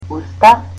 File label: "mouth", Macedonian